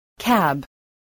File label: "cab", spelling